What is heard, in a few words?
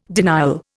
"denial", Indian